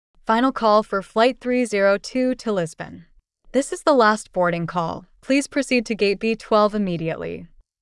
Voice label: airport announce; at the airport